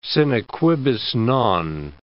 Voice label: "sine quibus non", English